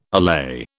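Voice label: "allay", British English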